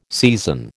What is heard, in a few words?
"season", American